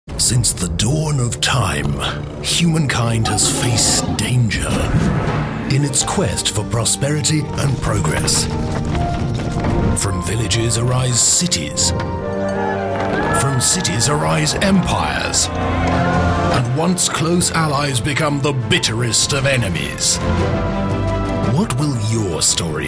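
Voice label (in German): UK English Werbung Lebendig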